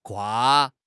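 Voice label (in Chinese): "跨", Chinese; HSK6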